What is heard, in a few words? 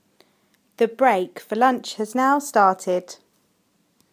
Lunch break start female voice